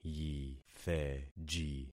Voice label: "yitheji", concat syllables; Affixing experiment